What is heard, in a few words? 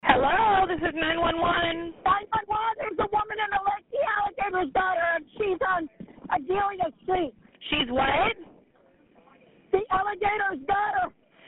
911 call; FATAL GATOR ATTACK